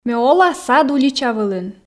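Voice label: Komi, female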